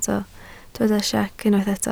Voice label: "siec", Welsh

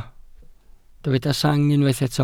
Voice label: "sang", Welsh

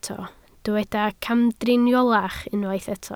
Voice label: "camdriniolach", Welsh

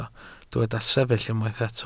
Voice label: "sefyll", welsh

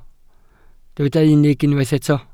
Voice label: "unig", welsh

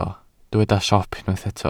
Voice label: "siop", Welsh

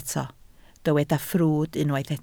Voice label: "ffrwd", Welsh

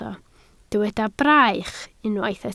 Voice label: "braich", Welsh

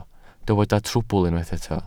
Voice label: "trwbl", Welsh

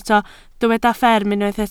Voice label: "fferm", Welsh

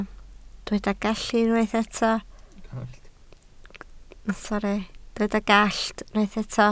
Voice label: "gallt", Welsh

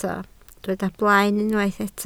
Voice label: "blaen", Welsh

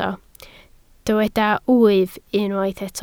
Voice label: "wyf", Welsh

